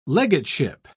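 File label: "legateship", British English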